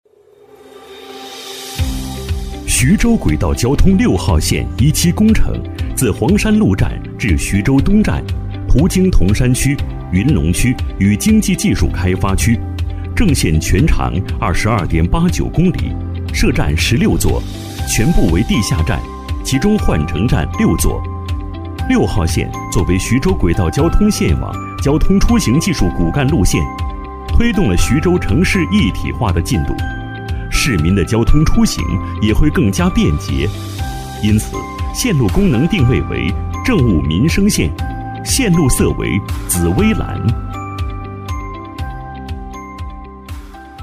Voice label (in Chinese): 男-PPT解说旁白 城市轨道交通6号线; 徐州市